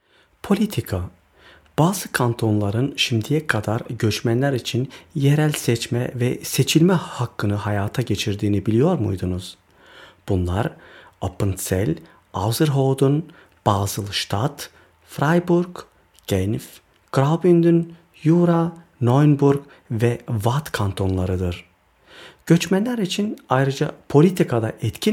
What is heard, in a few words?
tuerk